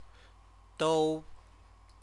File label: "dou", Chinese